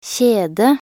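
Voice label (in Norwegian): "kjede", Norwegian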